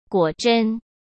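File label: "果真", Mandarin Chinese; hsk; chinese-flashcards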